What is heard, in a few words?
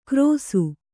"ಕ್ರೋಸು", Kannada